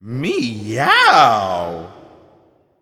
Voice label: Void Elf Emote Wow

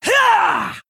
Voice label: Female Orc

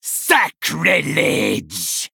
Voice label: Male Human Attack

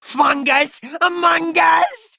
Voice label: Male Kobold

